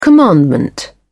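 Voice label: "commandment", English, British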